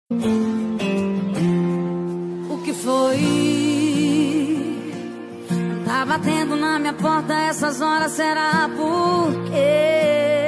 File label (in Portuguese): Romantica Para Ex Masculino, Telemensagem